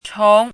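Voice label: "chóng", Mandarin Chinese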